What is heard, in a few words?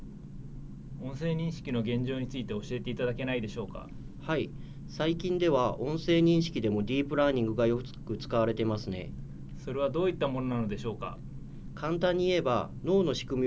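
ja-JP Broadband sample, Japanese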